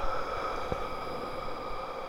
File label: heard Whisper; speech